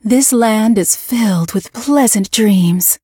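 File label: levelup, English; Cookie Run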